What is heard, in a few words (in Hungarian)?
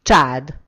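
"chad", Hungarian; gcompris; geography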